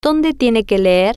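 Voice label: "Donde Tiene Que Leer", Spanish, female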